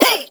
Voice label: Vocal Pitched "Hey"; bass house; fx vocals